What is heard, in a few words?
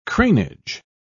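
"cranage", British English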